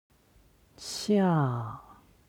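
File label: "xia", Chinese; 下; HSK Level One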